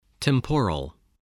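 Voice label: "temporal", English word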